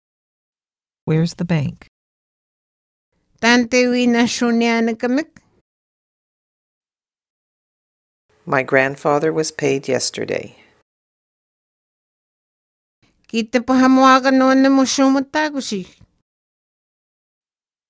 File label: Swampy Cree money; Linguistic Atlas